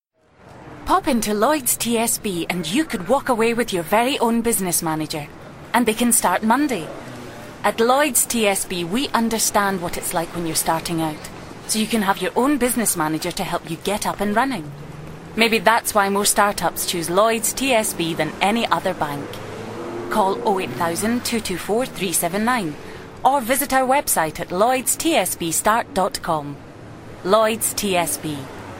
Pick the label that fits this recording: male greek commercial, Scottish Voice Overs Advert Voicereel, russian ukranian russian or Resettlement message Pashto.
Scottish Voice Overs Advert Voicereel